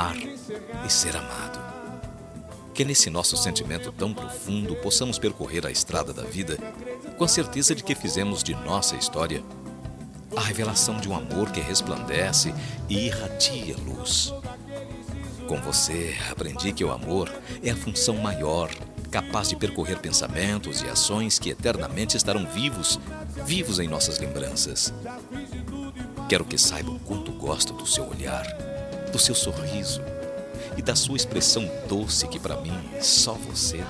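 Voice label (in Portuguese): ROMANTICA SUAVE male, Telemensagem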